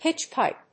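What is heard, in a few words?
"pitch pipe", English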